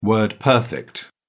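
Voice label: "word-perfect", British English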